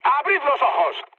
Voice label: player friendly fire, mood med, speech

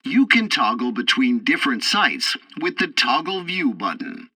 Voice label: tutorial bomber sight, english; War Thunder

